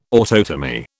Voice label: "autotomy", British

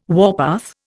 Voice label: "warpath", Indian

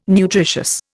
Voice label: "nutritious", Indian

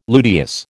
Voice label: "luteous", American

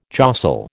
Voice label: "jostle", American

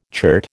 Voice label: "chert", American

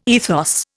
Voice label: "ethos", Indian

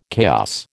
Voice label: "chaos", American

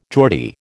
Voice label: "Geordie", American

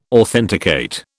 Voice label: "authenticate", British

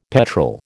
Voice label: "petrol", American

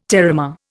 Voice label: "terramare", Indian

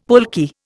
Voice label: "pulque", Indian; Dictionary

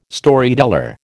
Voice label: "Storyteller", American

